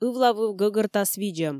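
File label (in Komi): "ывлавыв гӧгӧртас видзӧм", Komi, female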